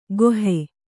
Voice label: "ಗೊಹೆ", Kannada

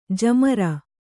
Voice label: "ಜಾಮಾರು", Kannada

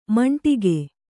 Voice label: "ಮಂಡಿಗೆ", Kannada

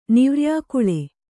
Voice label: "ನಿವ್ರ್ಯಾಕುಳೆ", Kannada

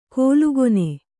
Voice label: "ಕೋಲುಗೊನೆ", Kannada

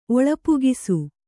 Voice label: "ಒಳಪುಗಿಸು", Kannada

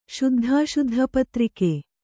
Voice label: "ಶುದ್ಧಾಶುದ್ಧಪತ್ರಿಕೆ", Kannada

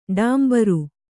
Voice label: "ಟೊಂಬರ", kannada

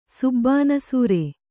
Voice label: "ಸುಬ್ಬಾನಸೂರೆ", Kannada

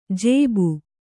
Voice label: "ಜೇಬು", Kannada

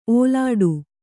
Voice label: "ಓಲಾಡು", Kannada

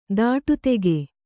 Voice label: "ದಿಟ್ಟಿತಾಗು", Kannada

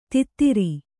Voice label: "ಧೋತ್ರ", Kannada

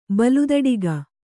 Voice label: "ಬಲುದಡಿಗ", Kannada

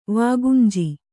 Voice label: "ವಾಗುಂಜಿ", Kannada

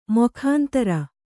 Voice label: "ಮೊಖಾಂತರ", Kannada

